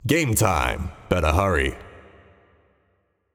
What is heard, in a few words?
Announcer Vox Match Start